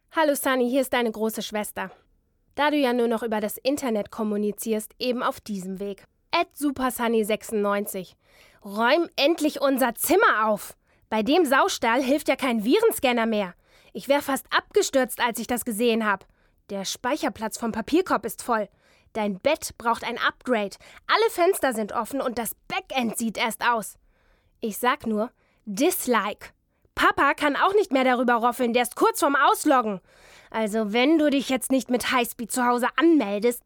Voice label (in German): Radio Werbung (ohne Musik)